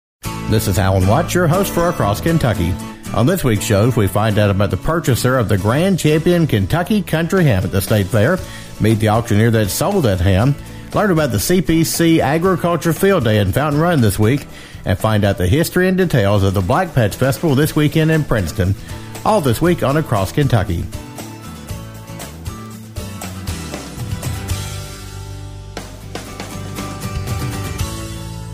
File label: promo; Across Kentucky